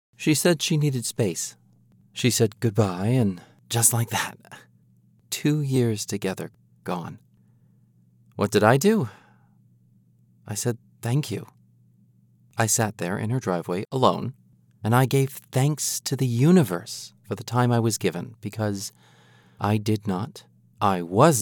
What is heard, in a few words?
Voice Clip Mournful